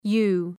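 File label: "yew", English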